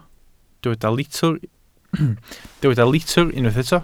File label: "litr", Welsh